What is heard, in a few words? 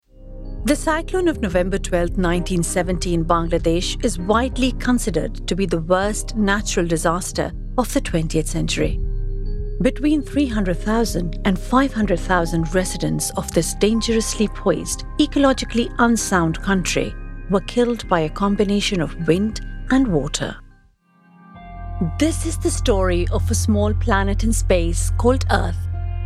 Documentary